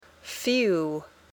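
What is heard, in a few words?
"few", English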